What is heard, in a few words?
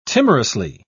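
"timorously", British English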